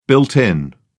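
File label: "built-in", British English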